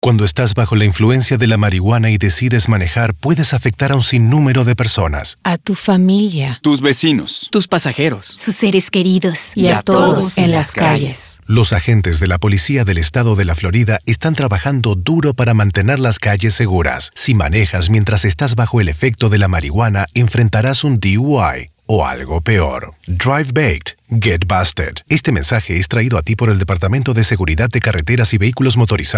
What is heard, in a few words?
Radio Spanish TRAFFIC